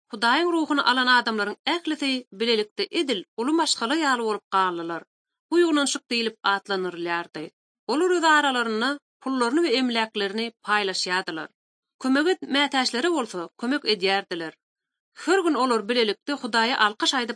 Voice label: turkmen, bible translation drama